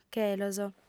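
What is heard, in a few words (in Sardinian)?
"chelos", female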